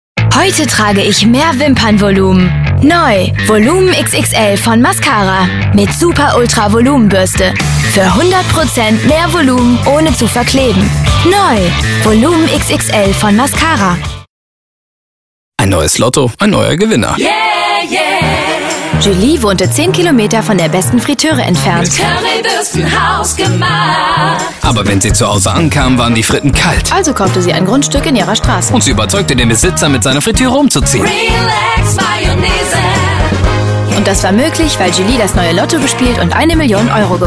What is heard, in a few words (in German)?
Werbung Game VoiceOver